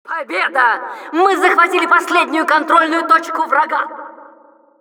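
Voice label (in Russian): Announcer success captured last control, Russian; "Победа! Мы захватили последнюю контрольную точку врага!"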